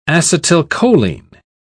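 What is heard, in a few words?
"acetylcholine", British English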